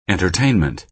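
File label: "entertainment", American English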